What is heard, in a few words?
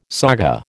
"saga", American